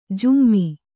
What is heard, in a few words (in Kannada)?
"ಜುಮ್ಮಿ", Kannada